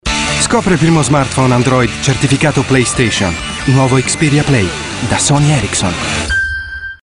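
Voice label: italian werbung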